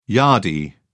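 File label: "yardie", British English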